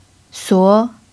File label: "suo2", Chinese